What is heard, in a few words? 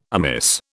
"amiss", British